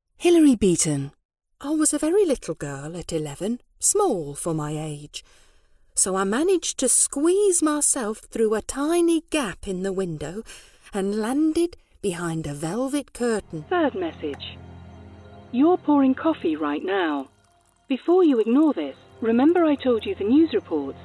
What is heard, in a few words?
character